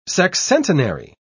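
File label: "sexcentenary", British English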